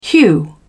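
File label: "hew", English, British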